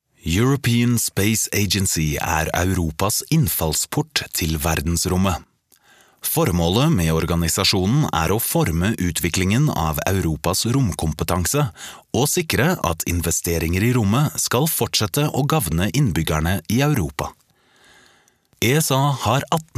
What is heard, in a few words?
norwegian corporate videos